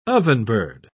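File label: "ovenbird", British English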